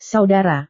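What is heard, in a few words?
"saudara", Indonesian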